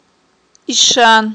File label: "ишан", Aghul